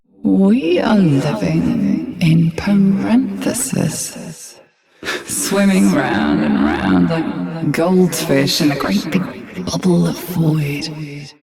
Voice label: Ambient Barks, en; Warframe; Mall Romance; Eleanor